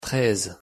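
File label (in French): "treize" French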